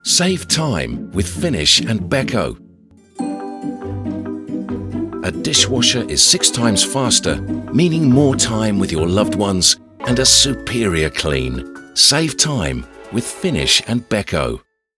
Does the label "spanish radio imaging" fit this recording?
No